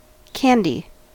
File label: "candy", us